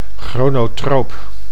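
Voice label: "chronotroop", Dutch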